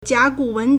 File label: "jia3 gu3 wen2", Chinese